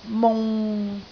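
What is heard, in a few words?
"mung6", Cantonese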